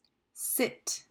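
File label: "Sit", English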